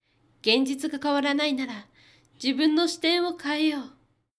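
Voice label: female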